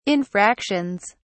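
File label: "infractions", British English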